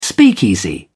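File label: "speakeasy", British English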